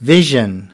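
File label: "vision", English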